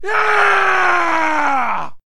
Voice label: man victory; Mount&Blade Warband